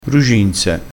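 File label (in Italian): "bruginse", dialettale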